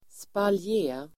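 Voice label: "spaljé", Swedish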